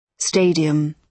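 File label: "stadium", British English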